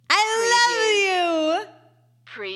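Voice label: Female Love You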